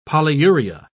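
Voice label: "polyuria", British English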